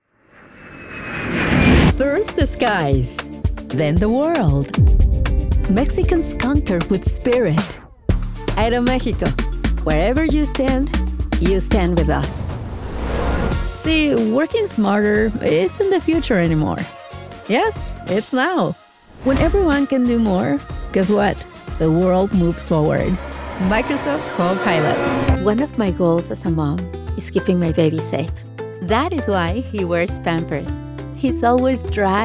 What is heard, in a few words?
Commercial